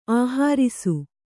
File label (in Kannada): "ಆಹರಿಸು", Kannada